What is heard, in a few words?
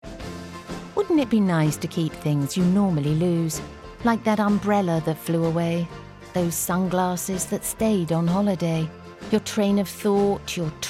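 commercial compilation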